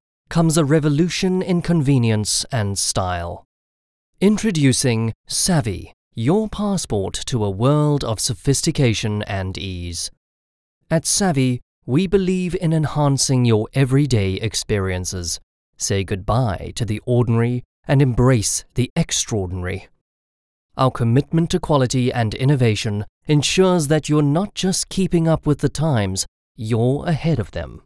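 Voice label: Afrikaans male South African English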